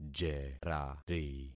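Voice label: "jerari", concatenated syllables; Affixing experiment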